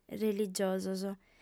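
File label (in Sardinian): "religiosos", female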